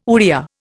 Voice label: "Oriya", Indian